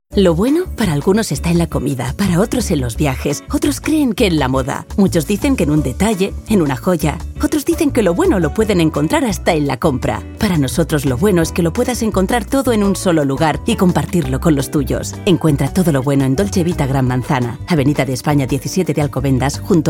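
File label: female Spanish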